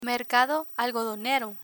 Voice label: "mercado algodonero", Spanish; learn spanish

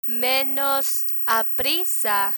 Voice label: "menos aprisa", Spanish

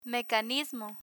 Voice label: "mecanismo", Spanish; learn spanish

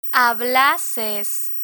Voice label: "hablases", Spanish

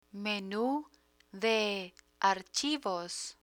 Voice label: "menu de archivos", Spanish

